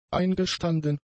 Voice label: "eingestanden", German; adjectives